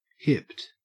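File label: "hipped", Australian English; pronunciations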